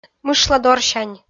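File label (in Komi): "мышладорсянь", Komi, female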